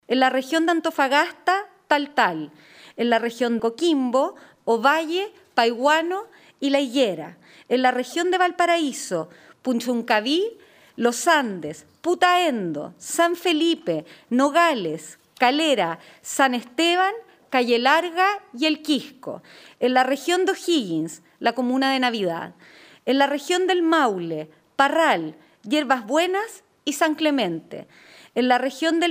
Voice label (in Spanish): cuña